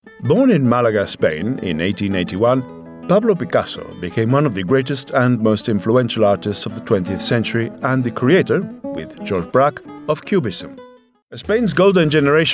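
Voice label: Spanish English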